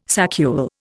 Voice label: "saccule", Indian